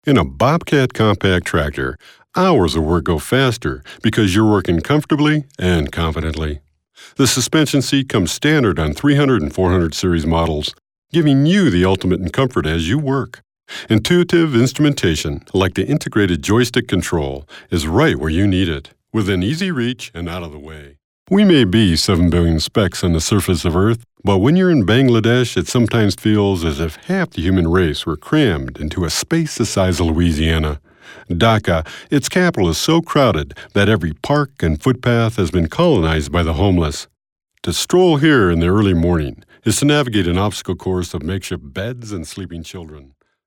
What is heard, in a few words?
Narration VO